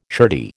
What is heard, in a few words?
"shirty", American